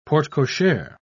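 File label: "porte-cochere", British English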